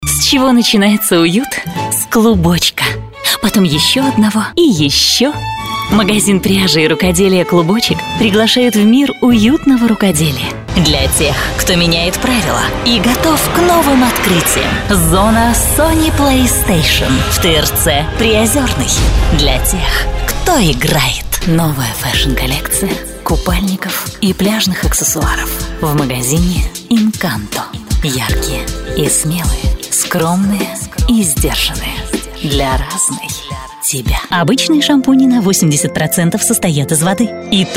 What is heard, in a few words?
Rus